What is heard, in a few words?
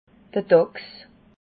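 "dachs", Alsatian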